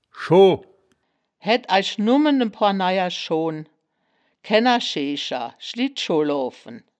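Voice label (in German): "schoh", wittlicher woerterbuch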